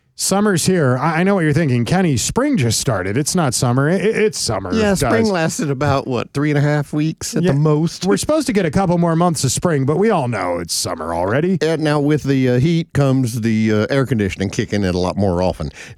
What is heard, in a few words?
RADIO AD; nicks plumbing radio ads